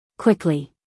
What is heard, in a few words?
"quickly", US female